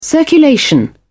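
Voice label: "circulation", British English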